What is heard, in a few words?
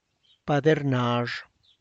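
exposed adobe wall, Tlacochahuaya Zapotec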